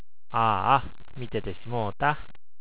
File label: "miteru", HIROSHIMA ben; みてる なくなる ”満てる”ではないので注意が必要